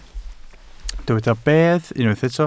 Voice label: "bedd", Welsh

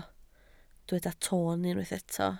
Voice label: "toon", Welsh

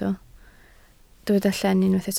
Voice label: "llen", Welsh

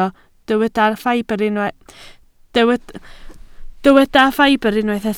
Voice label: "ffibr", Welsh

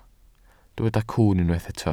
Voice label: "cwwn", Welsh

